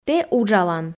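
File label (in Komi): Komi, тэ уджалан, female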